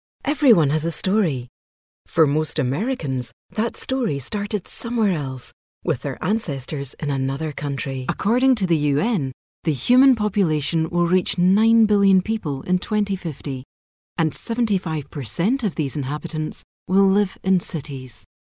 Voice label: english scottish narration; scottish voice actors